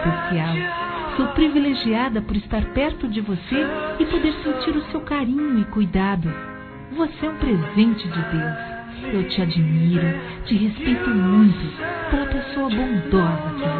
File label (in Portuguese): Aniversário Madrinha Feminino; Aniversários; Aniv Familiar